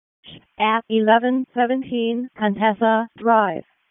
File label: WC EMS ALERT, p25